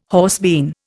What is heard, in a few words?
"horsebean", Indian